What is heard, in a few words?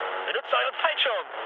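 German bailout mood low, speech; War Thunder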